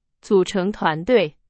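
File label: Chinese, example sentence; hsk2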